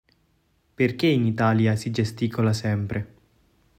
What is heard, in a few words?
italian prompt